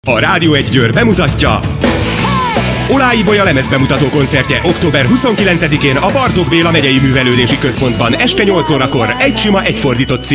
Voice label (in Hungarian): reklamspot